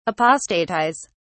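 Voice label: "apostatise", British English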